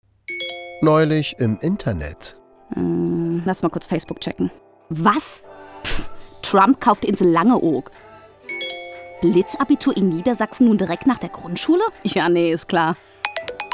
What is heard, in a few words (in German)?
Radiospot